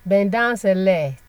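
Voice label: "bendownselect", Nigerian Pidgin, female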